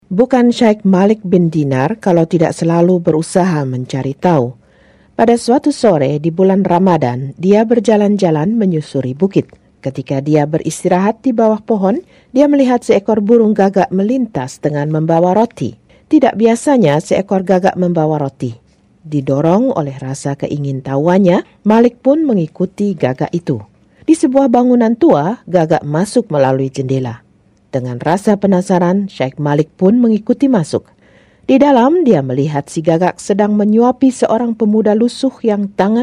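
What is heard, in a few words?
female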